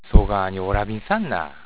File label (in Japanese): "おらぶ", 広島の方言; 叫ぶ。大声を出す。わめく。; そがーにおらびんさんな。（そんなに大声を出さないで。）